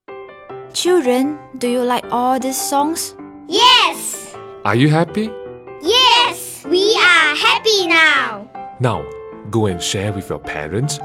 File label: Narration